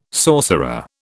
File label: "sorcerer", British